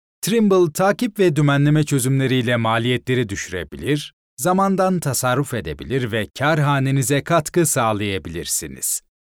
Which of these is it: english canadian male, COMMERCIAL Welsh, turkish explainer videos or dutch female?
turkish explainer videos